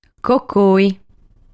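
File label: "Cocoi", Sardinian word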